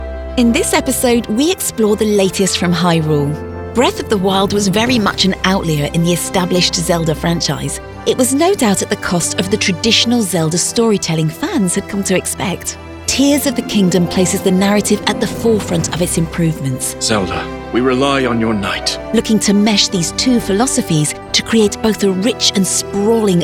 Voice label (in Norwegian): Innfødt voice Engelsk (UK); kommentator